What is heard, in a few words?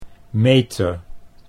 "meter", Dutch